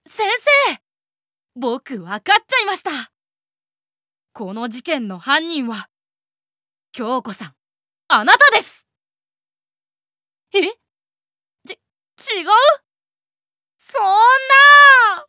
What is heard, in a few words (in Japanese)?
ボイス 少年